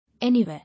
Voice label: "Anywhere", English

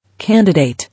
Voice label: "Candidate", English

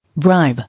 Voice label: "Bribe", English

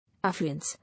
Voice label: "Affluence", English

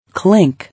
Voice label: "Clink", English

